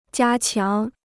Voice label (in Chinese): "加强", Chinese